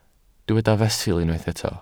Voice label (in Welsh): "fesul", Welsh